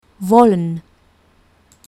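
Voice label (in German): "wollen", German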